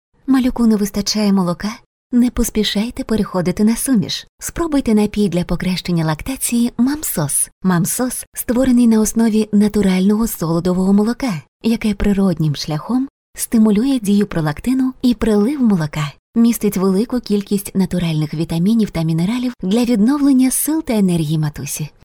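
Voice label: ukrainian voiceover locutora ucraniana, Ukrainian female voice over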